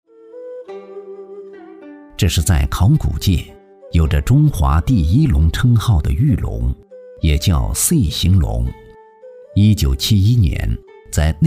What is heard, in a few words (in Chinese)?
纪录片 人文历史, 男国; 红山文化